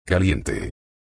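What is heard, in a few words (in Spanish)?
"caliente", Spanish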